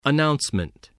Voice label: "announcement", English